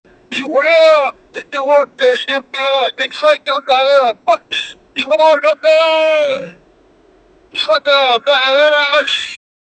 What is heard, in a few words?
an old man yells help; air vent